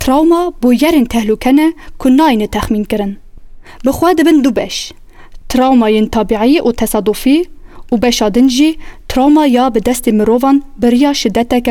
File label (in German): Kurmanci; NAWA